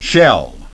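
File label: "shell", English pronunciation; ʃel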